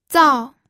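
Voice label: "zao4", Mandarin Chinese pinyin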